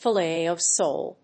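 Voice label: "fillet of sole", English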